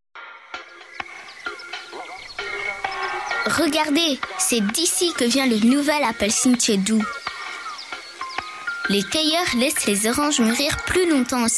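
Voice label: FR K commercial, French, child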